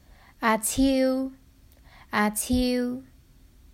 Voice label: "Ah Ciu 阿超", Cantonese; The Duke Who Didn’t: Glossary & Pronunciation Guide